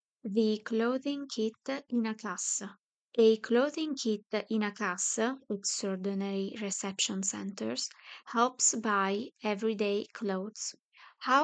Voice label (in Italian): inglese